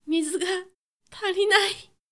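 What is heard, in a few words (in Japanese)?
女性 mizu ga tarinai; 女性 「水が足りない」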